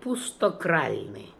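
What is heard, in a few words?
"Emptykralnian", pronunciations; Fourth Way; Beelzebub pronounciations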